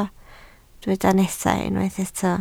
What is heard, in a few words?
"nesaf", Welsh